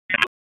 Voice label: Police North District Tac 1, p25; Raleigh; wake